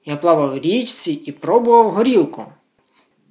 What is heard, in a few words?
dialogue, Ukrainian